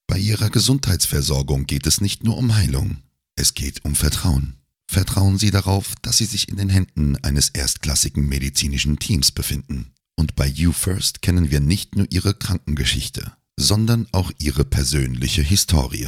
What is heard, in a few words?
Professional